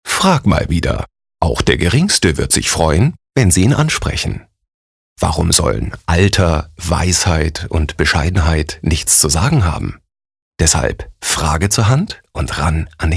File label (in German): Werbung Sanft